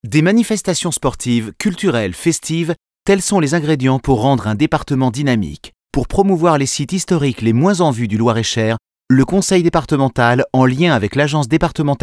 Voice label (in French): voix médium; Emmanuel CURTIL